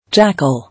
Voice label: "Jackal", English